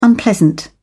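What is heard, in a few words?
"unpleasant", British English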